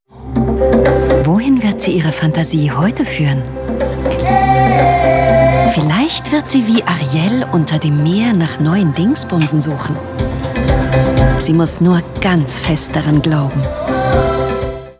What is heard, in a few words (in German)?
OFF, frauen; Arielle